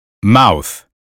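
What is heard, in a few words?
"mouth", American English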